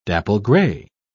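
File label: "dapple-grey", British English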